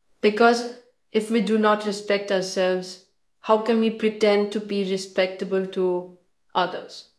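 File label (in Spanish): Voice Acting es F, Spanish, female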